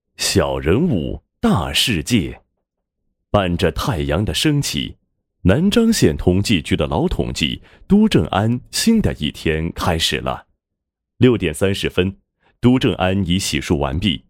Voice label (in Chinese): 人物专题配音; 小人物-大世界